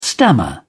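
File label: "stammer", British English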